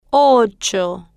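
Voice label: "ocho", Spanish, female, slow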